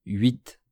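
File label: "huit", French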